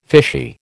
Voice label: "fishy", American

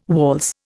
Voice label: "waltz", Indian

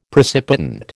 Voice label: "precipitant", American

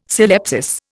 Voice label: "syllepsis", Indian

